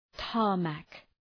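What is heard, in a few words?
"tarmac", English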